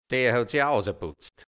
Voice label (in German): "dea hout si(c)h außaputzt", hianzisch Mundart, Burgenland